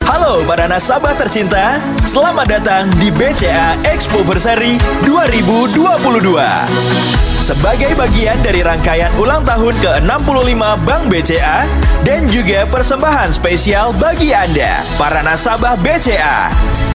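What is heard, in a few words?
Bahasa Indonesia Opening; BCA Expoversary 2022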